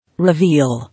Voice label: "Reveal", English